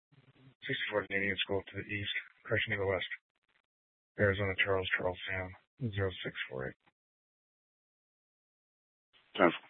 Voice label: Police South Dispatch; Regional Wrlss Cooperative (RWC) - Smlcst H- Scttsdle